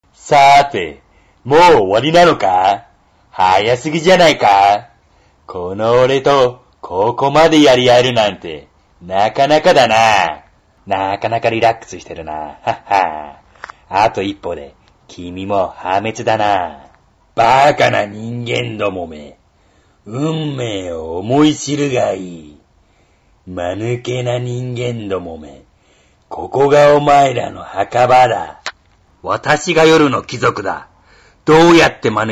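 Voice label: Japanese, game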